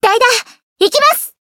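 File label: Voice chant, Japanese; violet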